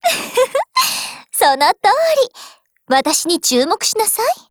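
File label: DIALOGUE, Japanese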